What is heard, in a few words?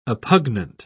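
"oppugnant", British English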